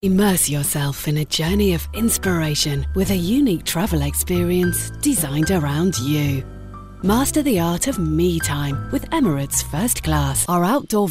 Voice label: commercials